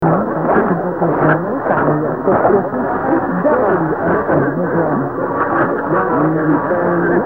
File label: 650, mw dxing; WSM; Nashville TN